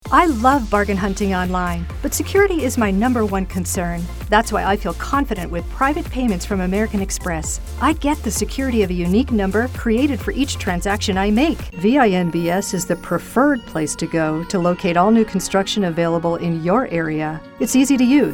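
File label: COMMERCIAL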